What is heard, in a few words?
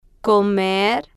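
"comer", Spanish, female, slow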